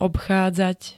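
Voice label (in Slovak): "obchádzať", Slovak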